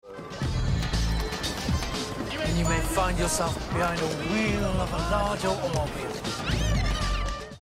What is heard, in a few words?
male English West Midlands accent radio promo; silverstreet